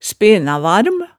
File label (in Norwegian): "spenavarm", hemsedalsmaalet